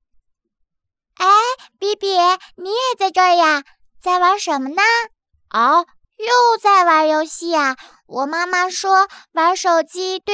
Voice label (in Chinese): 角色 可爱俏皮 男女童声